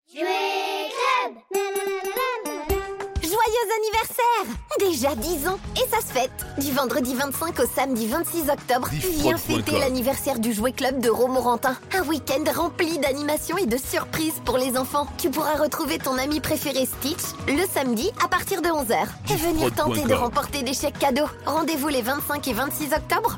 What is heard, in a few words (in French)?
Spot Noel, publicite; JoueClub 2024